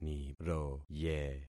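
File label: "niroye", concatenated syllables; Affixing experiment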